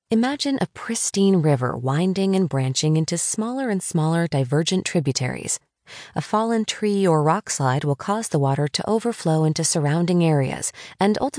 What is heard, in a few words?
Essential Chakra Meditation; self-development